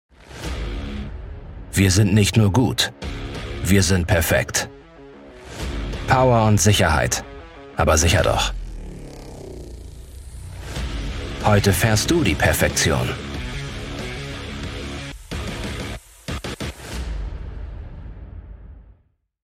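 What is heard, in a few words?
german automotive, Male; German Voice Actor; born in Schleswig-Holstein in 1987 and live near Hamburg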